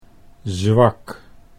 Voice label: "zwak", Dutch